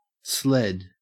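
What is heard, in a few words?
"sled" English, Australian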